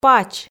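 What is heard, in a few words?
"пач", Komi, female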